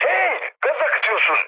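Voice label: damaged water, mood med, Turkish; speech; War Thunder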